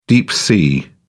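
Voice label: "deep-sea", British English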